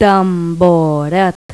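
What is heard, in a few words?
"taburete", Spanish; Pipo-Aprende musica con Pipo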